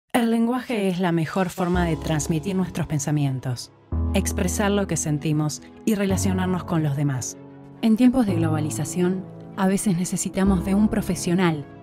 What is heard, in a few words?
spanish argentinian corporate videos